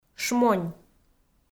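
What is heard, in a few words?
"шмонь", Komi, female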